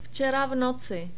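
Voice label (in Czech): "včera ráno", czech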